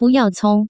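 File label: buyao cong, Taiwan Mandarin; nightmarket; xiangcai; dabao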